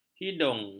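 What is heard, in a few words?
"hidung", Malay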